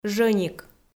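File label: "жӧник", Komi, female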